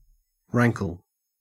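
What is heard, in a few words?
"rankle", Australian English; pronunciations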